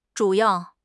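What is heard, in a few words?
"zhu3 yao4", Mandarin Chinese; HSK3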